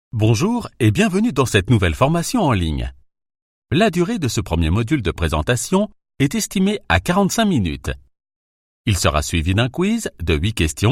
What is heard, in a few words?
elearning light